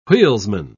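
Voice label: "wheelsman", British English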